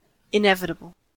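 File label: "inevitable", American English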